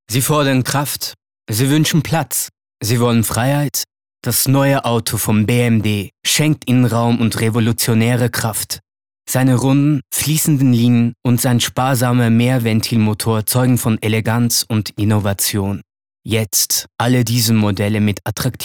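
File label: spot neutral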